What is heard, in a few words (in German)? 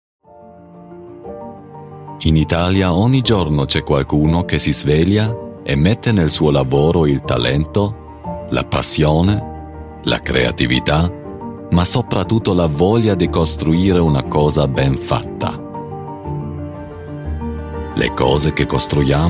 Italienisch; Fiat